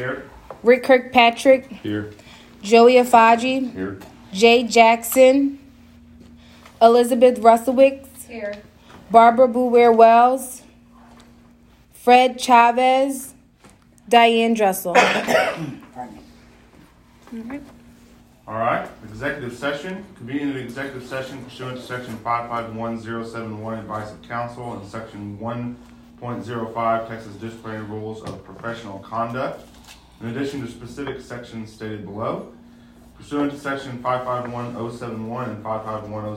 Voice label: Meeting Voice Recording